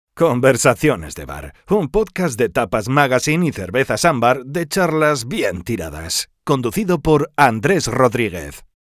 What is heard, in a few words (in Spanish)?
Conversaciones de bar